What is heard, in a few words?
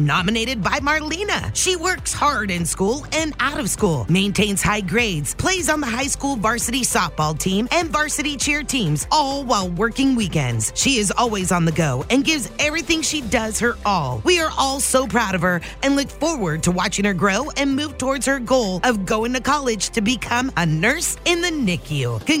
Student announcement; KKBN 93.5 FM; Student Athlete of the Week; KKBN 93.5 Athlete of the Week Sponsored by The Candy Vault